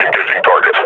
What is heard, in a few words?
firing, combine grunt